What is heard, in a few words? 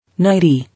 "Nightie", English